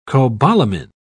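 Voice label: "cobalamine", British English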